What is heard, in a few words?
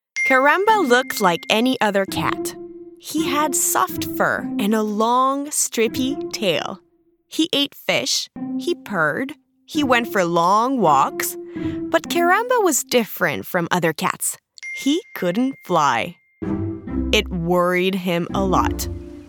english canadian narration